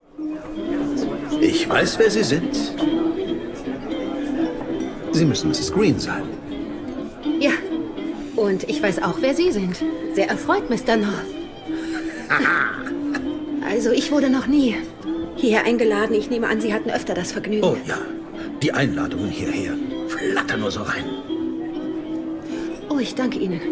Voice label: Synchron, maenner; Der Buchladen der Florance Green

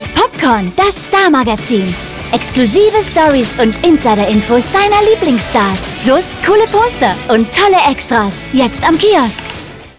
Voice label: Spots, frauen; Popcorn

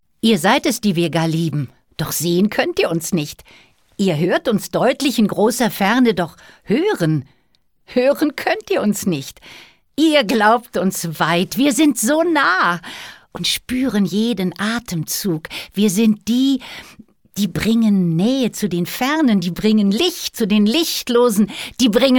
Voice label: Gedicht froehlich, frauen; sprecher

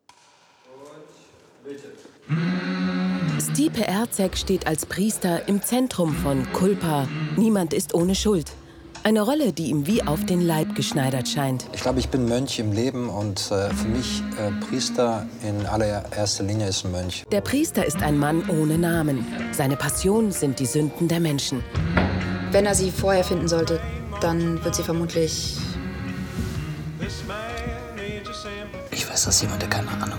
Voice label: Kommentar, frauen; Culpa; Der Priester

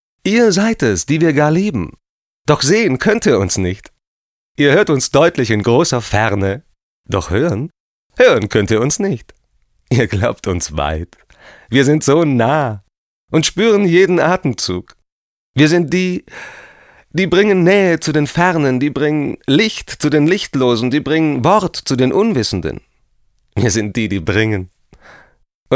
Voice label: froehlich, maenner

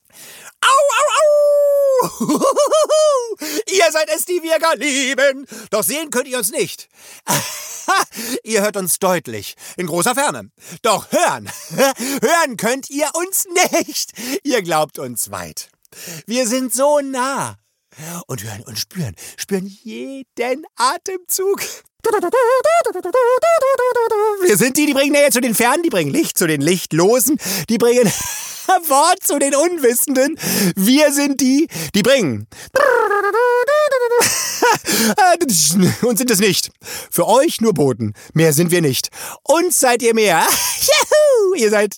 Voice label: charge, maenner

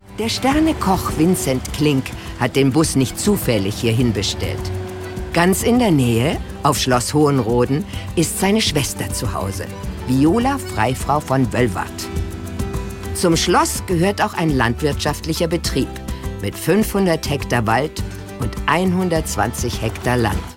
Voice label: Kommentar, frauen; sprecher; Lecker aufs Land

